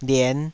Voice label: "lien5", Taiwanese syllable